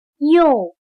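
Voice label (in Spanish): "幼", chino mandarin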